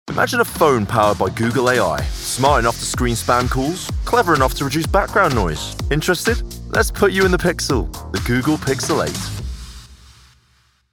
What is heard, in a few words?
Commercial; Google Pixel